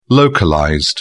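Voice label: "localized", English, British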